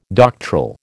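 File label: "doctoral", American English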